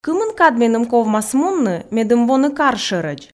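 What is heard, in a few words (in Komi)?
кымын кад меным ковмас мунны медым воны кар шӧрӧдз, Komi, female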